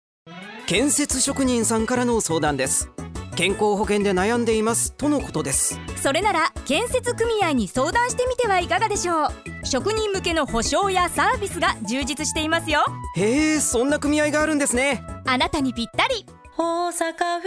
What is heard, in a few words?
radio; osaka